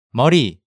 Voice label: "Meori", Korean